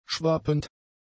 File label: "schwappend", German; adjectives